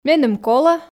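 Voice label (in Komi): "меным колӧ", Komi, female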